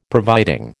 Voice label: "providing", American

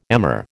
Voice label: "emmer", American

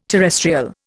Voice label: "terrestrial", Indian

